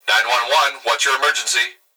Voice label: Emergency Human Male Voice Police Dispatch 9-1-1 What's Your Emergency; emergency effects